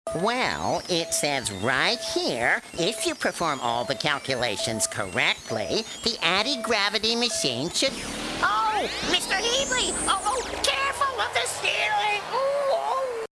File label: nerd